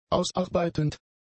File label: "ausarbeitend", German; adjectives